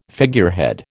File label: "figurehead", American